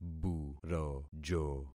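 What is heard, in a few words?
"burojo", concatenated syllables; Affixing experiment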